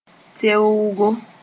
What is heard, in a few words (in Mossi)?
"teoogo", moore